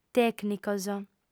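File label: "tècnicos", Sardinian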